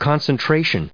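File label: "concentration", US English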